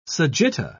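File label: "sagitta", British English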